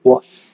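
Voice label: "wash", Nigerian Pidgin, male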